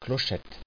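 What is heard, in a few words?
"clochette", French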